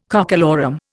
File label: "cockalorum", Indian